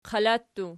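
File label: "qala" plural, Archi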